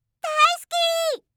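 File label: "daisuki", Japanese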